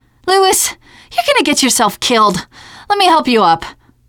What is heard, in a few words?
ledge save, teengirl; player; survivor; voice